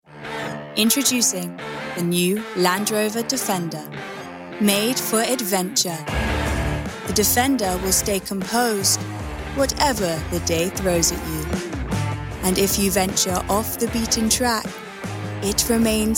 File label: Commercial; Landrover